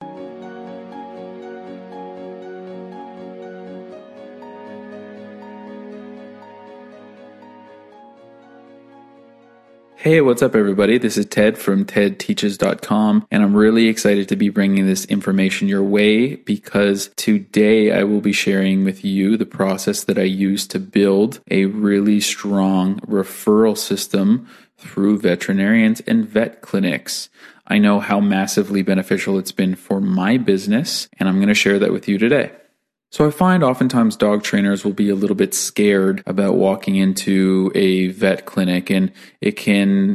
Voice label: audiobook; Trainer-Docs; Teds Books